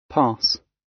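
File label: "pass", British; Crime and punishment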